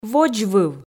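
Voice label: "водзвыв", Komi, female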